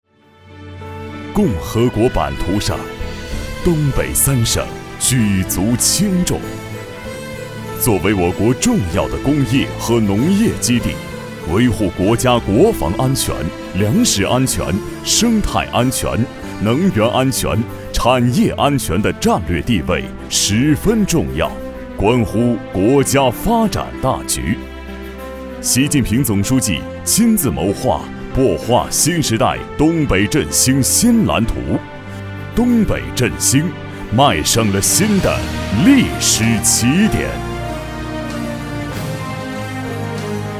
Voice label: 男 专题 沉稳 力量

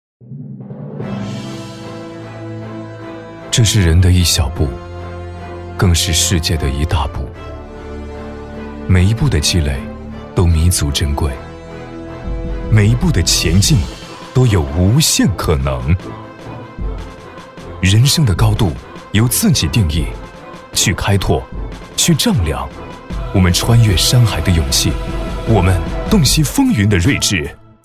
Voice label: 男 广告 大气 品质; 泸州老窖; 中央电视台CCTV8台标声

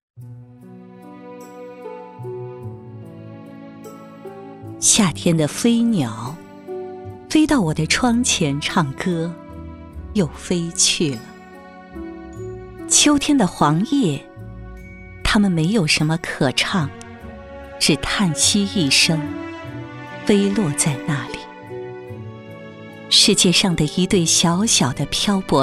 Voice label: 女 诗歌朗诵 感情磁性; 飞鸟集

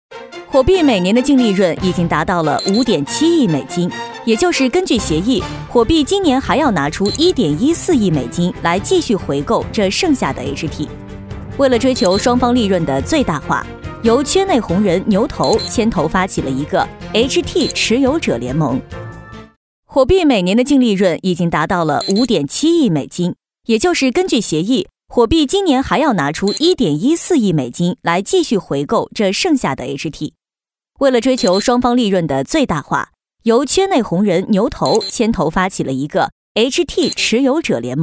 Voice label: 女 新闻; 火币

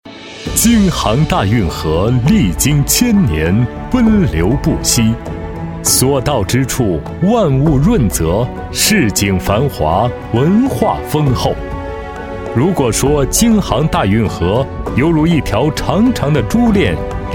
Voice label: 男 专题; 苏南运河吴江段三级航道

